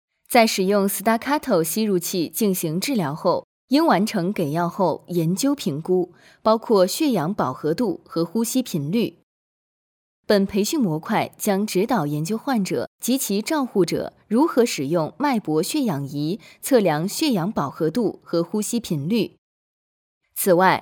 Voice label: female chinese voice over narrative, mandarin